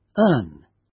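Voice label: "earn" British; Business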